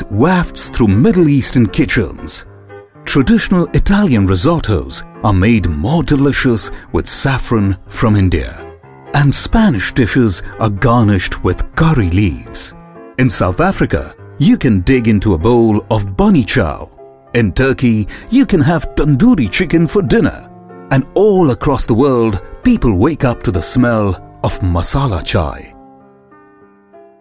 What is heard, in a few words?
Corporate AV English; Globalization of Food